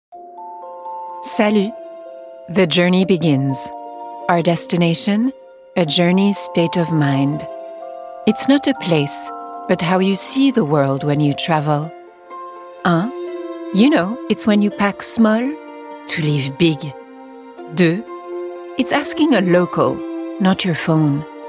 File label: English French